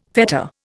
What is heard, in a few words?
"fetter", Indian